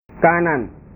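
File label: "kanan", Indonesian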